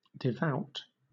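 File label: "devout", British English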